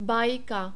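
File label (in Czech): "bajka", czech, male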